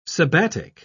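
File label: "sabbatic", British English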